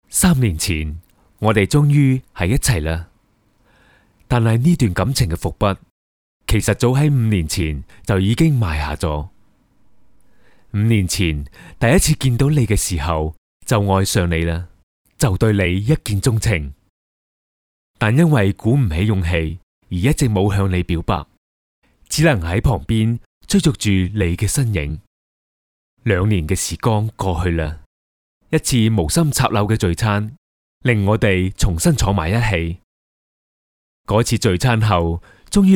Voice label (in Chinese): 粤男 抒情对白